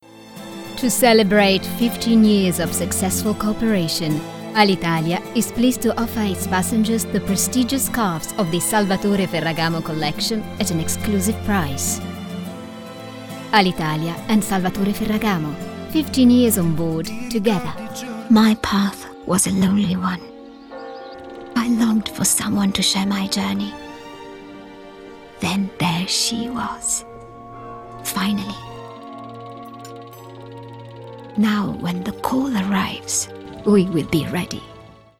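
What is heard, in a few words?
Italian English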